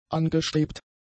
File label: "angestrebt", German; adjectives